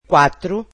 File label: "four", Portuguese